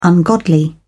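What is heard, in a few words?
"ungodly", British English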